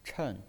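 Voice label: "chen", Mandarin Chinese pinyin voice, fourth tone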